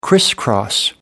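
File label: "crisscross", British English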